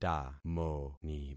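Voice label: "tamoni", concatenated syllables; Affixing experiment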